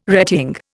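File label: "retying", Indian English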